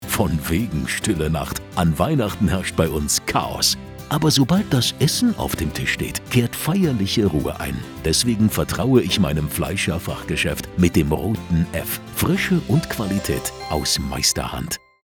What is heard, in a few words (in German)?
Radiospot Weihnachten; fleischerhandwerk